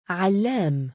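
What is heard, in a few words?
"3allaam" male, Arabic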